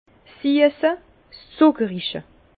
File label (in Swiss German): "zuckerige", Bas Rhin; le sucré; vin